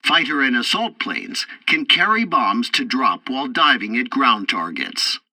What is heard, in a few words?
tutorial assault bombing, english; War Thunder